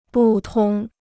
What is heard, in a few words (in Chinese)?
"不通", Chinese